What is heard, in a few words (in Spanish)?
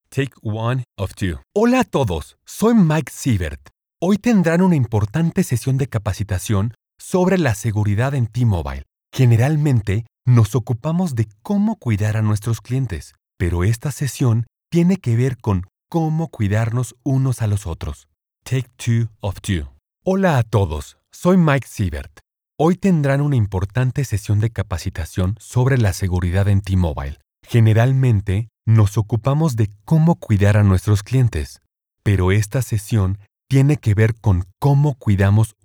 spanish latin american e-learning